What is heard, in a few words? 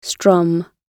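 "strum", British English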